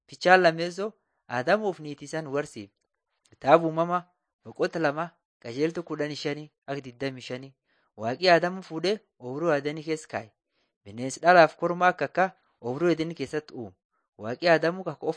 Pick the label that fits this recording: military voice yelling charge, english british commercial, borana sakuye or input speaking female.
borana sakuye